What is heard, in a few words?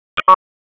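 Raleigh Police Southeast District Tactical; p25; wake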